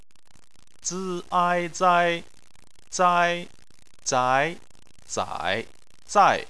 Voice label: "zai", chinese